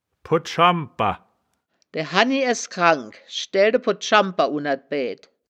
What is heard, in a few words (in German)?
"podt-schamba", wittlicher; das wittlicher woerterbuch; woerterbuch vertonung